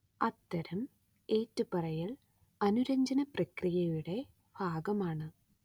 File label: Malayalam; Pywhisper CPP ASR